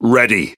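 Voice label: Pirate Speech Pilots Torpedo Ready, English pirate; World Of Warships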